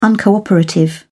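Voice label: "uncooperative", British English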